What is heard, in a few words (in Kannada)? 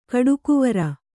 "ಕೊಡುಗೈವೀರ", kannada